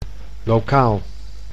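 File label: "lokaal", Dutch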